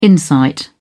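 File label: "insight", British English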